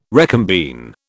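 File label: "recombine", British English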